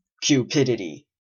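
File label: "cupidity", British English; pronunciations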